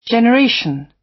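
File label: "generation", British; Time, history; Thời gian, lịch sử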